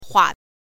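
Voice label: "hua", Mandarin pinyin, neutral tone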